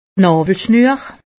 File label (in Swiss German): "nawwelschnuer", alsace; medecine